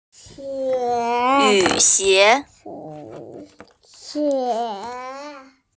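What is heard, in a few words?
"yu3xie2", Mandarin Chinese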